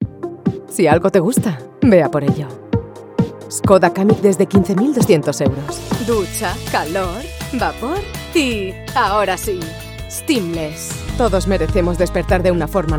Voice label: Animated energetic ads

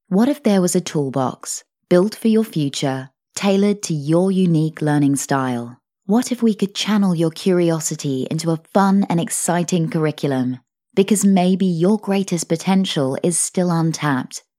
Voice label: English Voice Over Sample

